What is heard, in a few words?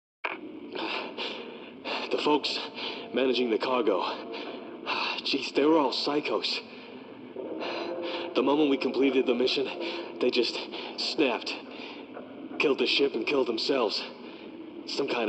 MALE